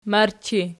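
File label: "marchi" nominative, Archi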